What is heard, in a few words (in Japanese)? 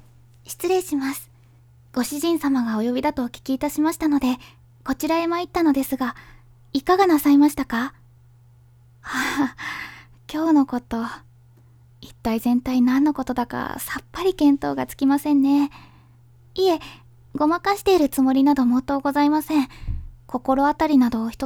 可愛いメイドさんにひたすら罵倒されるシチュエーションボイス; 【上級者向け】